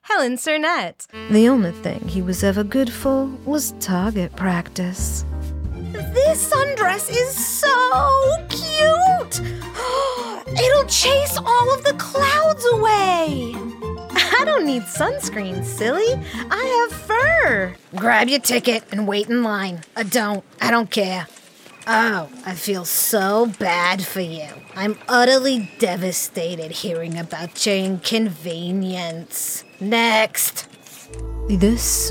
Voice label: Character Demo; 2min Edit